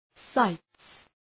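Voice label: "sights", English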